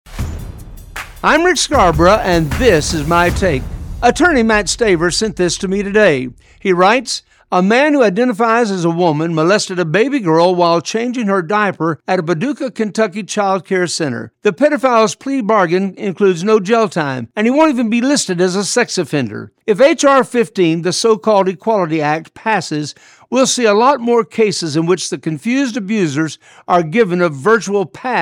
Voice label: Radio Spot; HR 15 Bill